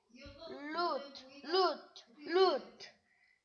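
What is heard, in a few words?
"lute", spoken word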